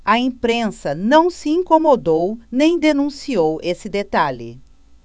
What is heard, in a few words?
PORTUGUESE BRAZIL